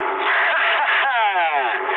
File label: trick, speech ru